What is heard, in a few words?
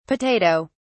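"potato(s)", British English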